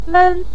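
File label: "men5", Chinese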